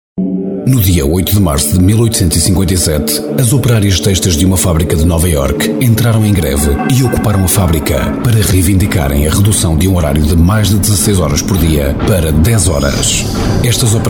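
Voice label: Male, Spanish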